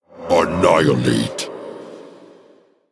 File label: Male Spirit Attack